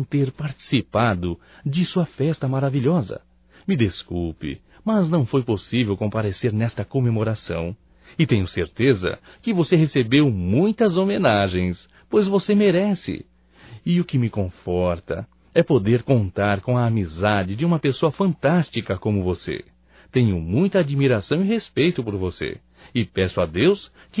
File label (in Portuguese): Aniversário Atrasado Amigo Masculino; Aniversarios; Telemensagens 2017